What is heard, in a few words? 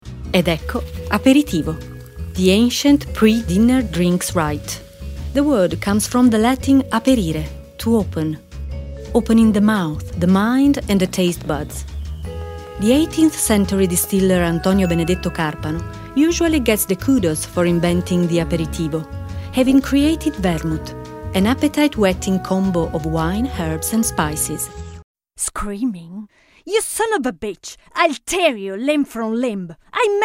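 female voice, English accent, Italian; voice demos; GoLocalise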